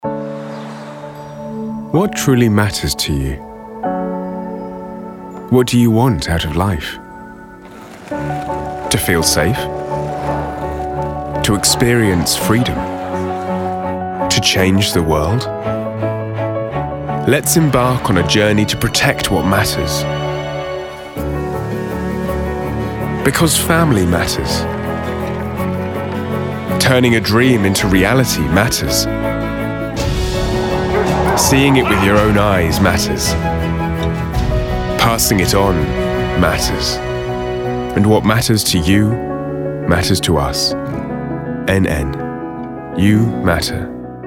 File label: english british commercial, deep voice; professional British English voice actor